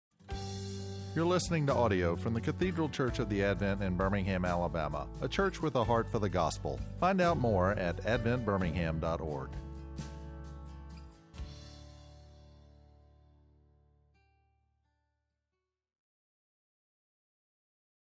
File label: Sermon; sunday morning sermons